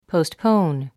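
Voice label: "postpone", English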